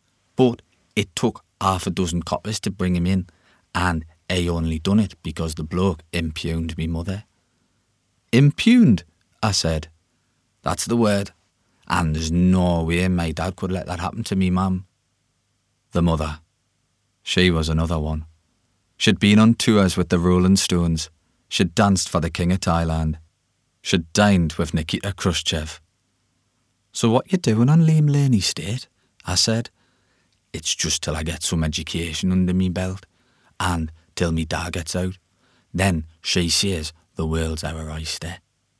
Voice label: Audiobook, Newcastle, reassuring playful and friendly